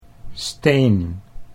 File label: "steen", Dutch